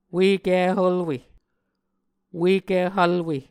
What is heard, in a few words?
"Ueki Hvlwe", Muscogee